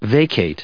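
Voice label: "vacate", US English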